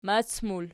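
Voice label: "mac", plural, Archi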